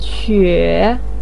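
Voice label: "que", Mandarin Chinese, third tone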